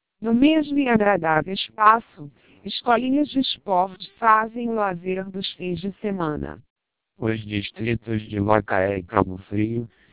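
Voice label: Portuguese speech, TWELP 480 bps vocoder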